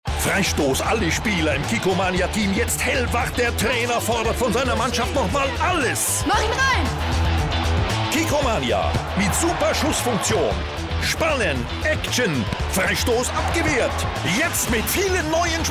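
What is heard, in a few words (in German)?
kommentar sport; castavoice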